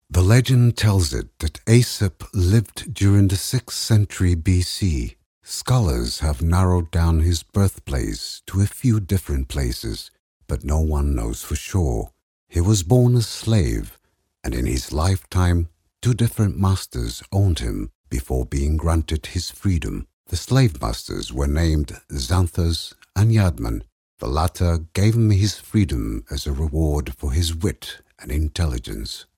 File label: male portuguese voice over talent english; portugal